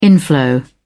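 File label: "inflow", British English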